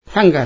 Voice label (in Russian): "дыра", Nanai